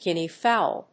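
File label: "guinea fowl", English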